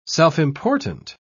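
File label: "self-important", British English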